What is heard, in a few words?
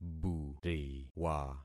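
"buriwa", concatenated syllables; Affixing experiment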